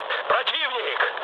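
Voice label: Russian enemy detected mood med, speech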